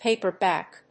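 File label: "paperback", English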